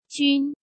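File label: "Jūn", Chinese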